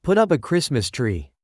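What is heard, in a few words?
"put up a Christmas tree", English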